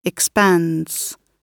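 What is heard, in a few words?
"expands", British English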